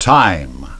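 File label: "time", English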